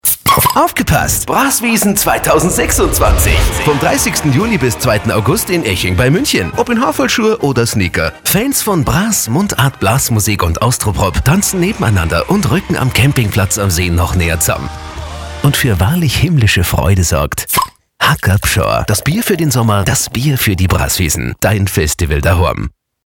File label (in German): funkspot; hacker; brasswiesn